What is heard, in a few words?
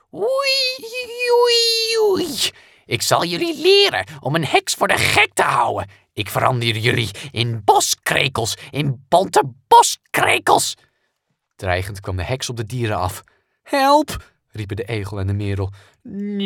vr narration dutch